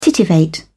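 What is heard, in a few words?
"titivate", British English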